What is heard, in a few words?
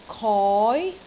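"koi2", Cantonese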